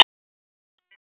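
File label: Police Southeast District Dispatch; Raleigh; wake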